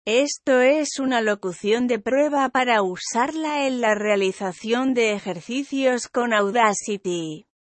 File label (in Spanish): locucion